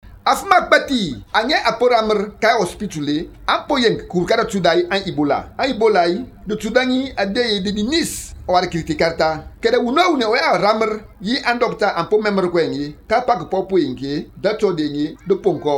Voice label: Themne; ebola; radio-spots